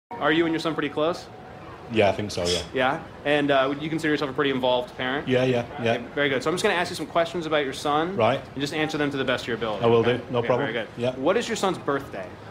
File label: speech enhancement before